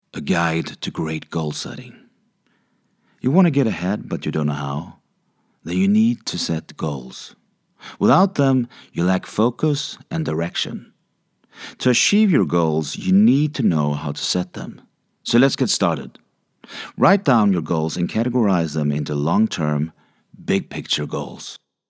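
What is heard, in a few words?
English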